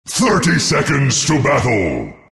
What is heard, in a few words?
announcer count battle 30; dlc naturesprophet